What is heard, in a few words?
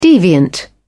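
"deviant", British English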